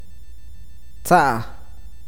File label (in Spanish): "cenizas", awakateko